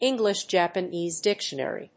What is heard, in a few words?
"English-Japanese dictionary", English